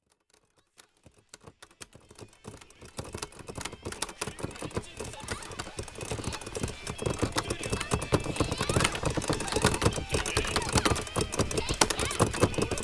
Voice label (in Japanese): 雨降りバージョン, ASMR; 明るく元気な格ゲー少女を騙して目隠しさせて…